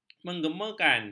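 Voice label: "menggemakan", Malay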